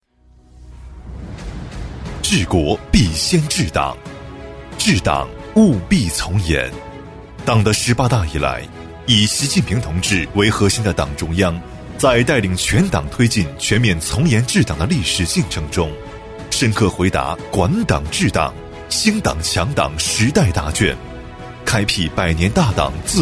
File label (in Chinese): 男 党政专题 宣传大气; 以“三个自觉”从严治党 党政